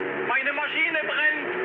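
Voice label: German on fire mood high, speech; War Thunder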